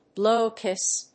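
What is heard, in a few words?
"blow a kiss", English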